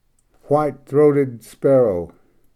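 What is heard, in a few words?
"white throated sparrow", English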